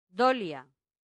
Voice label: "dolia", latin; santillana